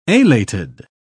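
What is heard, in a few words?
"alated", British English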